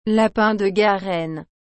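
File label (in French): "lapin de garenne", French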